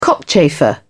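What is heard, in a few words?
"cockchafer", British English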